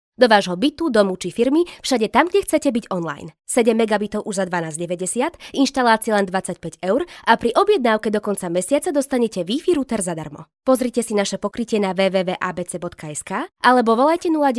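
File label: Slovak, female, commercial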